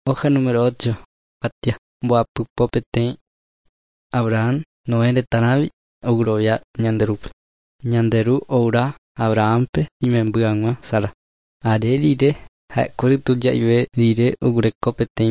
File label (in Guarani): guarani mbya; good news and words of life; bible